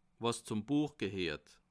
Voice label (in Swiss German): "buech", alsace